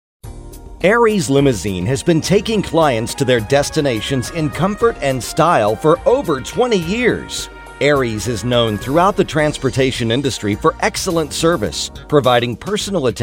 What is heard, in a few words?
limo company, male voices; audio on hold